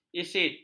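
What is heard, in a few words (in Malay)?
"isit", Malay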